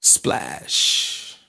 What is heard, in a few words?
splash, voice; ooh ahh